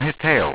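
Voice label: "tail", english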